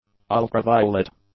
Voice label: "ultraviolet", English; adjectives

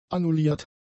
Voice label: "annulliert", German; adjectives

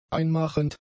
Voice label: "einmachend", German; adjectives

